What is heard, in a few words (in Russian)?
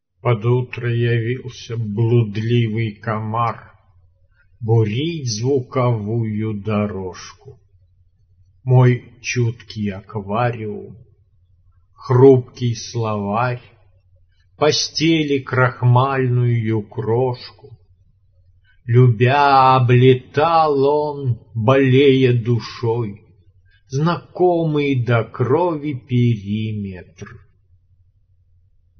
звучащие стихи; "И веером листва..."